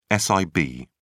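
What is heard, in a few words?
"sib", British English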